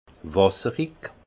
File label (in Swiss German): "wasserig", alsace; vin; aqueux